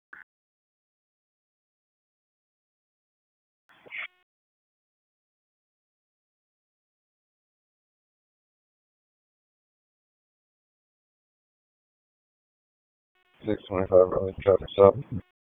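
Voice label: Police Southwest District Disp, radio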